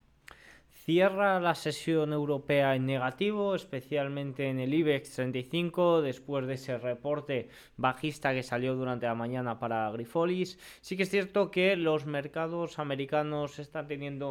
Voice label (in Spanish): radio; noticias bolsa; cierre europeo a la baja